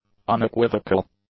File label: "unequivocal", english; adjectives